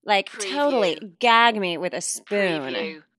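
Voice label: Teen Girl Says Gag Me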